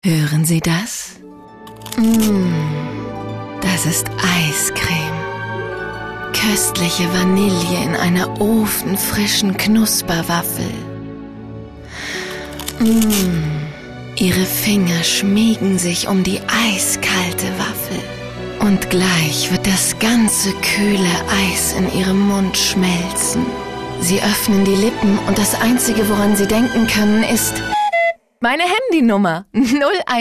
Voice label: Werbung Variabel

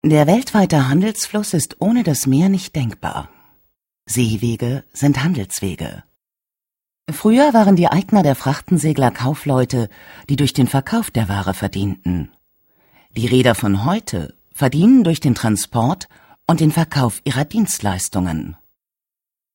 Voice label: Kommentar Freundlich

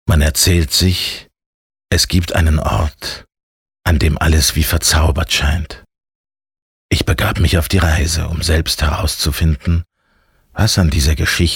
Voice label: Schauspiel, Rau

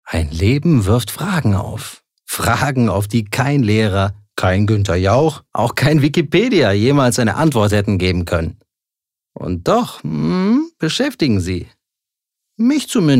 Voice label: Schauspiel Dynamisch

